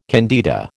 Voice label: "candida", American